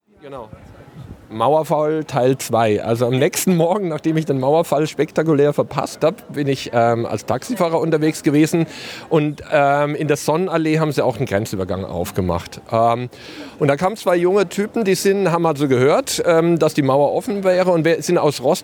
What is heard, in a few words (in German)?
stimme m